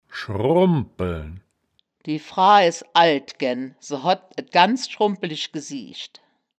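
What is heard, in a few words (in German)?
"schrum bpeln", wittlicher woerterbuch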